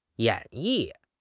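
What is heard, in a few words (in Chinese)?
"演绎", Chinese; HSK6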